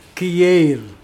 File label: brown lizard, Mokilese